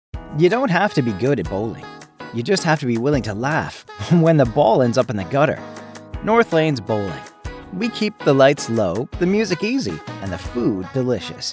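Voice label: Bowling with music; North Lane Bowling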